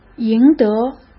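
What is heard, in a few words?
"赢得", Mandarin Chinese; chinese-flashcards; hsk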